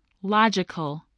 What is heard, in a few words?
"logical", spelling